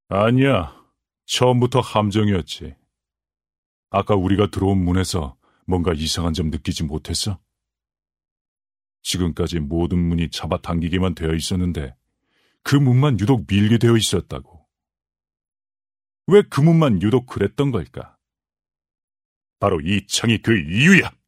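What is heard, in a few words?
Korean, male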